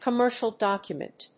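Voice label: "COMMERCIAL DOCUMENT", English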